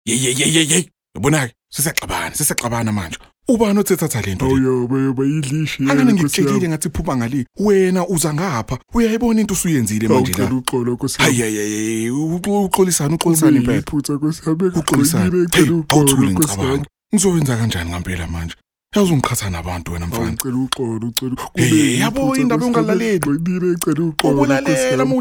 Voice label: male zulu voice over talent character